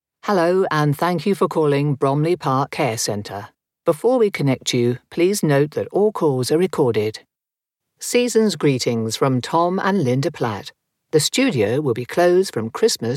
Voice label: telephone

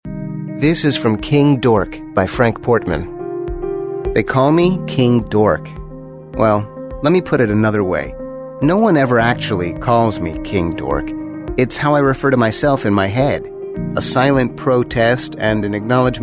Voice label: american male audiobook narration

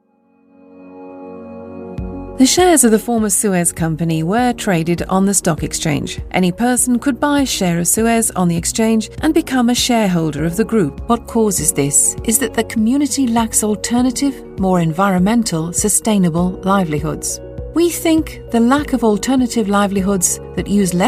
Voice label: corporate

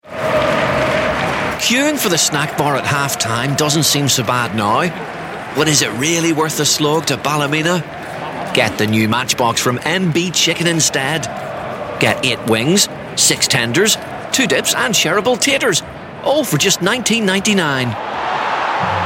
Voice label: 30 second commercial, Youthful Northern Irish

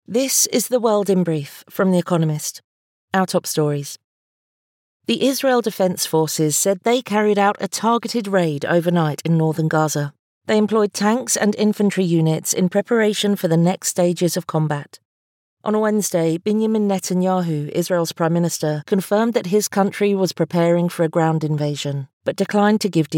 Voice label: documentary

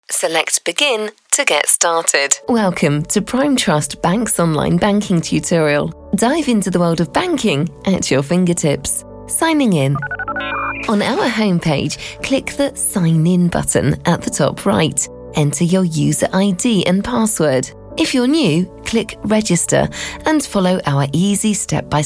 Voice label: corporate

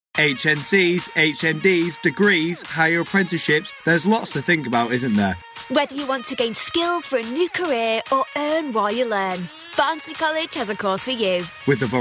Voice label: son, Truthful Emotive Northern Voice

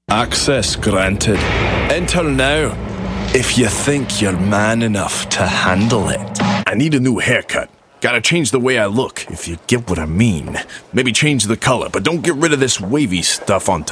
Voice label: american voice character, American Male Voice

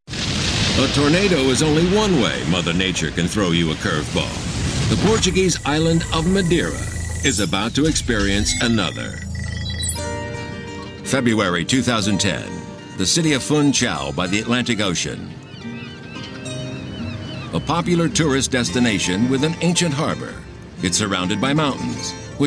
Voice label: deep american narration

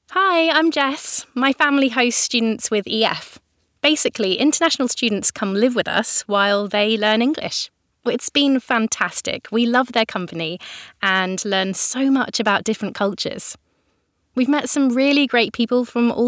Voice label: natural

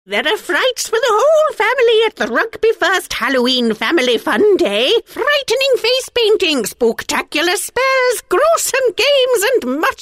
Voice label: witch

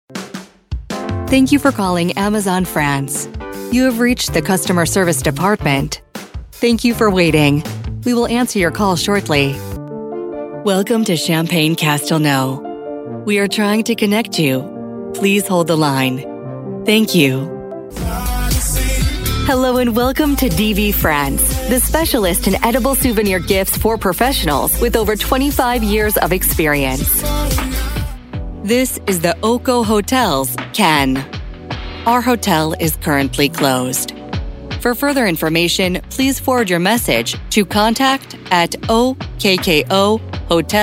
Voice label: american voice telephone

